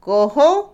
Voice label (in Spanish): Otomí, mujer; cuatro; Cantidad; INALI